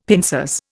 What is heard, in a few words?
"pincers", Indian; Dictionary